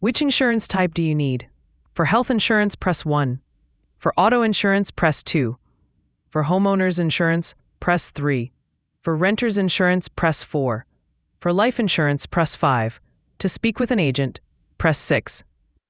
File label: question english